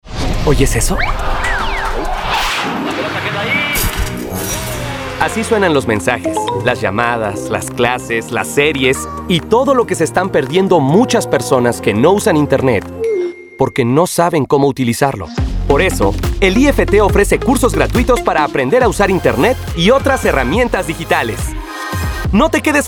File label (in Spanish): spot radio silencio; ift